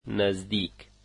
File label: "near", Dari